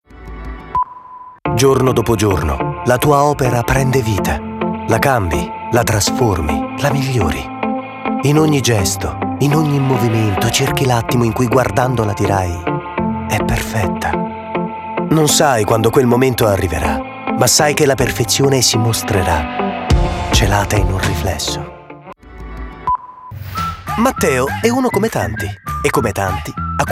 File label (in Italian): demo audio spot web tv radio